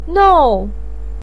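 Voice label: "nou", Mandarin pinyin, tone 4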